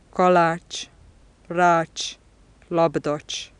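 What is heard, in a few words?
postalveolar affricate voiceless final, hungarian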